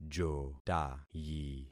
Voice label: "jotayi", concat syllables; Affixing experiment

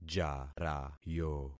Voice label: "jarayo", concat syllables; Affixing experiment

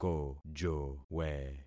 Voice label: "kojowe", concat syllables; Affixing experiment

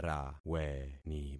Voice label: "raweni", concat syllables; Affixing experiment

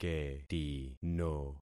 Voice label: "ketino", concat syllables; Affixing experiment

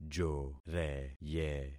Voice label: "joreye", concat syllables; Affixing experiment

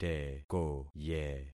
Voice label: "tekoye", concat syllables; Affixing experiment

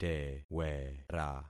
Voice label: "tewera", concat syllables; Affixing experiment

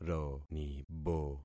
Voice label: "ronibo", concat syllables; Affixing experiment

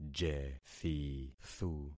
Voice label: "jethithu", concat syllables; Affixing experiment

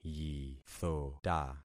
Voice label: "yithota", concat syllables; Affixing experiment

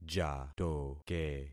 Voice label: "jatoke", concat syllables; Affixing experiment

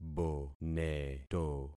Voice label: "boneto", concat syllables; Affixing experiment

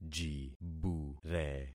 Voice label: "jibure", concat syllables; Affixing experiment

